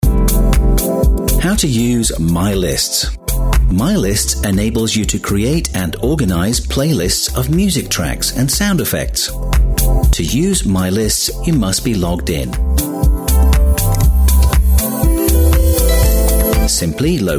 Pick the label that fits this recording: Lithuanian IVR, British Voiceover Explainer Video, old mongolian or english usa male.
British Voiceover Explainer Video